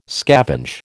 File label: "scavenge", American